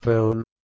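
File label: "peln", Proto-Indo-European; eastern origins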